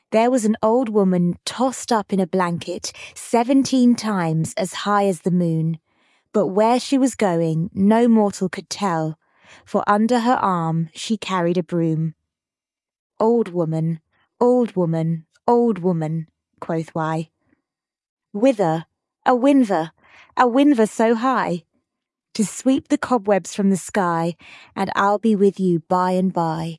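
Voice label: female British; There Was an Old Woman Tossed Up In a Blanket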